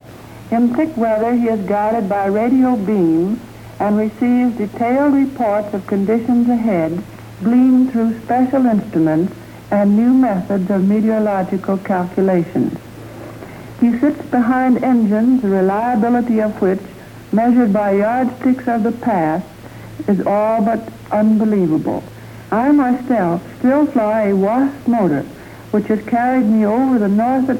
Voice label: Speech, american english dialect recordings